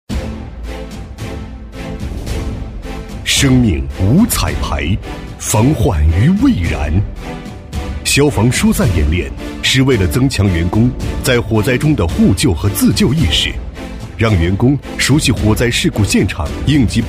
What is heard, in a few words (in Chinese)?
男 消防汇报片 消防演练; 《火警》